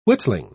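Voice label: "whittling", British English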